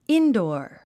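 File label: "indoor", English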